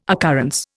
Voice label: "occurrence", Indian

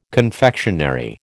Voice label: "confectionery", American

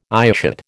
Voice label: "eyeshot", American

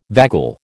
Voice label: "vagal", American

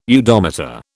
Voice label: "udometer", British

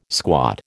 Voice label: "squat", American

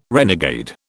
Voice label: "renegade", British

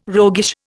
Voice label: "roguish", Indian

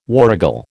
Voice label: "warrigal", American

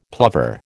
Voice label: "plover", American

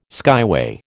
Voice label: "skyway", American

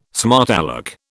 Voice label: "smart alec", British

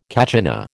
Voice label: "kachina", American

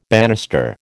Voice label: "bannister", American

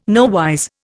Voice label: "nowise", Indian

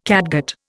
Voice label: "catgut", Indian

